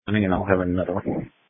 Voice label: Sheriff Dispatch